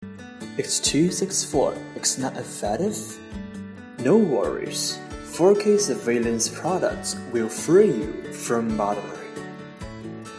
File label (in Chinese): 产品介绍舒适家居